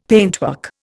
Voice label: "paintwork", Indian; Dictionary